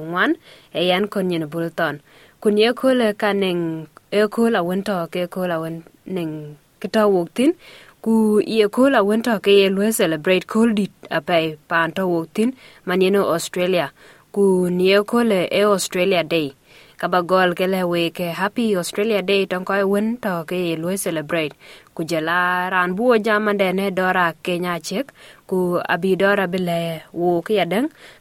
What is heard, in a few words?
dinka; Invasion Day wenne Australia Day 26/01/2024; SBS Dinka